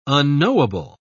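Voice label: "unknowable", British English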